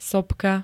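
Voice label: "sopka", Slovak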